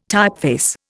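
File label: "typeface", Indian English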